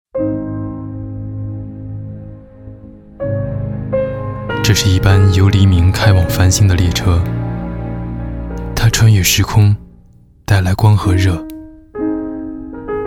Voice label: 男 微电影, 国语男声配音, 青春时尚; 人生旅行

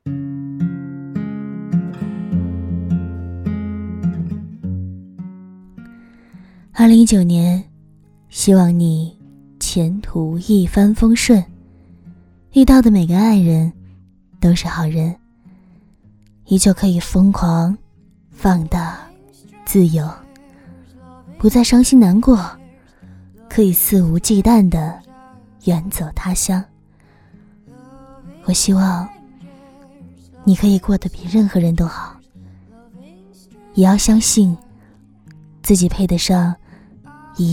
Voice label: 独白

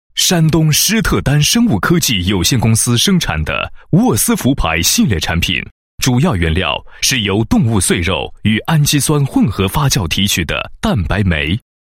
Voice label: 男 宣传广告 宣传简介; 施特丹生物科技

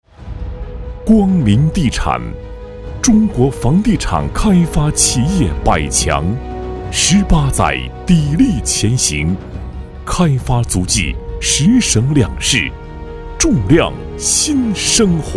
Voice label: 男 企业专题; 金茂悦

